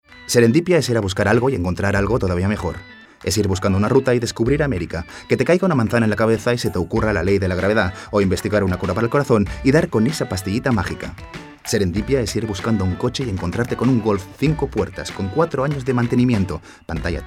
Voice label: male spanish car comercial; ideasonora